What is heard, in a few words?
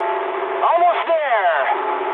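English near waypoint mood high; War Thunder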